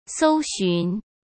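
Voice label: "搜寻", Mandarin Chinese; hsk; chinese flashcards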